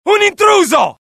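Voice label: alert, Italian; mohaa; dialogue; sighted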